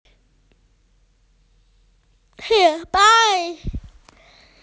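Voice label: "darkness", Mandarin; hei1an4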